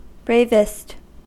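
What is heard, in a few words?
"bravest", US English; pronunciations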